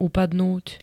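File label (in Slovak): "upadnúť", Slovak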